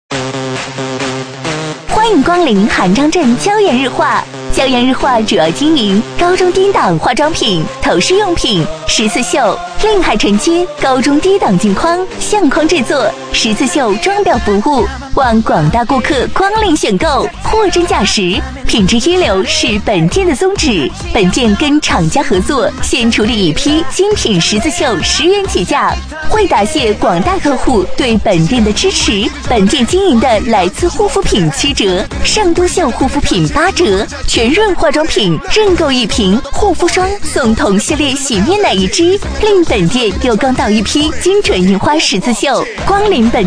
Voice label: 女 促销, 促销女配; 张镇娇颜日化

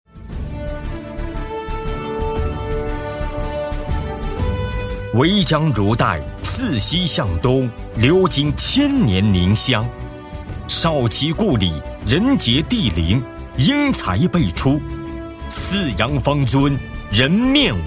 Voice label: 男 党政专题

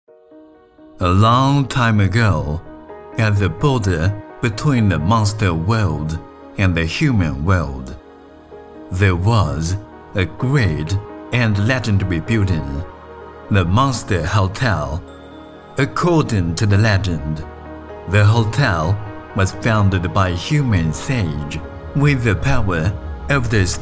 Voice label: 男 英文 英式英语 旁白讲述

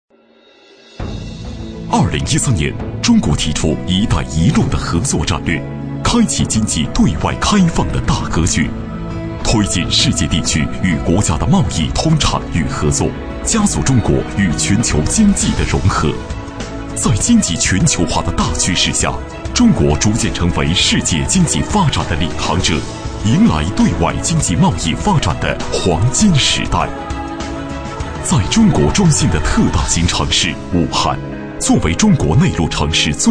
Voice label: 男 专题 大气力度; 武汉专题

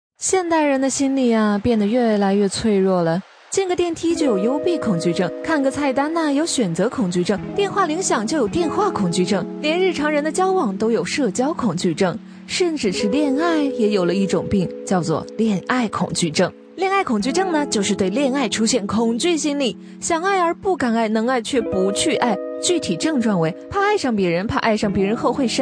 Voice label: 女; 恋爱恐惧; 飞碟说

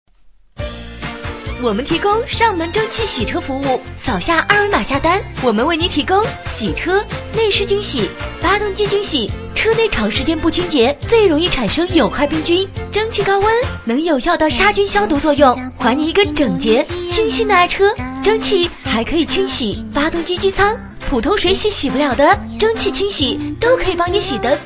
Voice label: 女 促销 汽车清洗

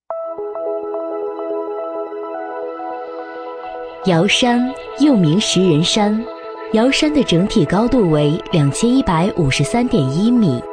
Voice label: 女 专题 景区介绍

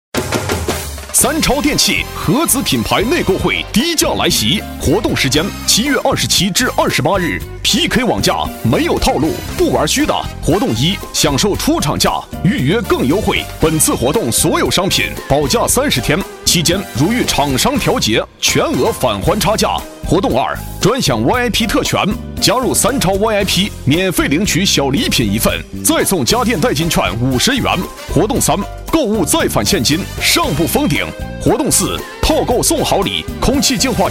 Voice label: 男 促销 电器, 促销男配; 三超电器

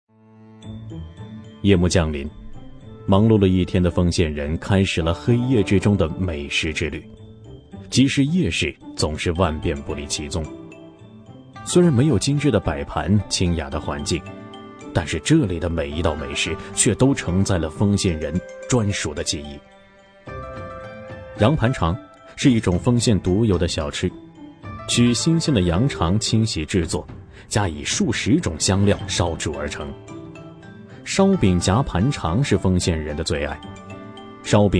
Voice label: 男 纪录片 美食纪录片解说

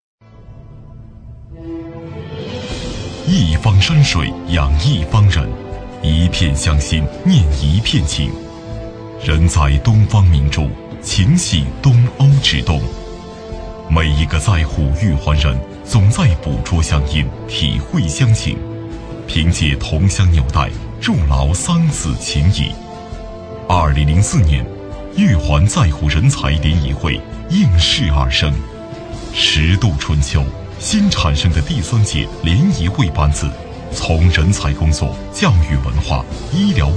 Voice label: 男 专题 温情专题介绍

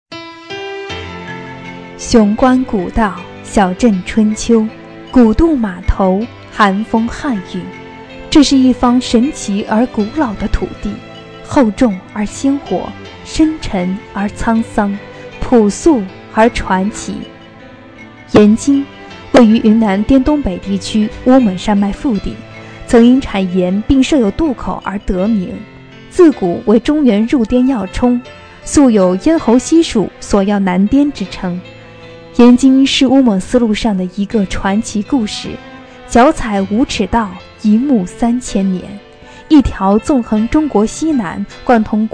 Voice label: 专题 解说 温柔大气, 女; ——温柔大气

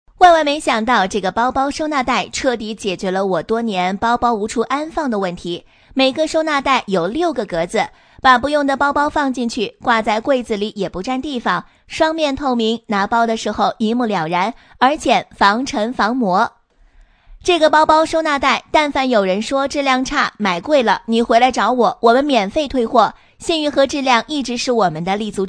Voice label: 女 抖音视频配音; 万万没想到

